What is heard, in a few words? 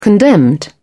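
"condemned", British English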